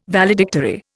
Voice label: "Valedictory", Indian